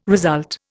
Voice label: "result", Indian